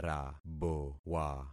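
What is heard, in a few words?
"rabowa", concatenated syllables; Affixing experiment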